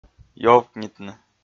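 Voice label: "ёвкнитны", Komi, male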